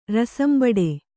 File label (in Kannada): "ರಸಂಬಡೆ", kannada